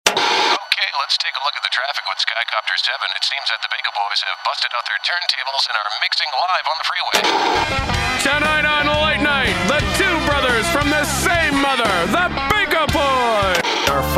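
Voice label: voice over; baka boyz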